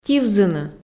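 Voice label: "тивзыны", Komi, female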